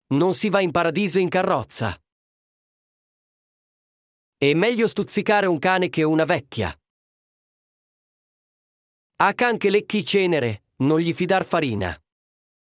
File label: Italian proverbs